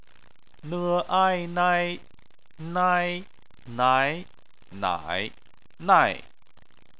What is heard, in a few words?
"nai", chinese